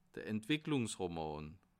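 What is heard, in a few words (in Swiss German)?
"entwicklungsroman", Bas Rhin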